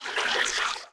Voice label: Wraith Whispers; Playable Tutorial Level